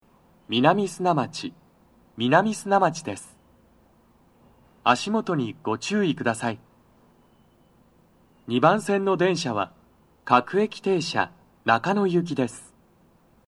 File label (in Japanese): toucyaku, 到着放送 【男声】; 2番線 中野・三鷹方面; 放送は「各駅停車 中野行」です。; 南砂町